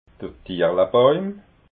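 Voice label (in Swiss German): "tierlebauim", Alsatian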